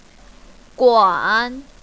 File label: "guan3", mandarin, female